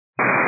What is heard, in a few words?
122 925MHz, scanner radio